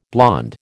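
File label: "blond", American English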